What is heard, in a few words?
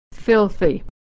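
"filthy", American English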